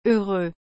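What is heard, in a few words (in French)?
"heureux", French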